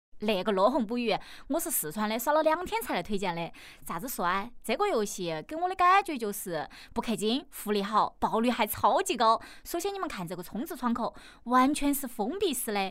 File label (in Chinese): 四川方言版 游戏; 乐鸿捕鱼